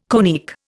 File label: "conic", Indian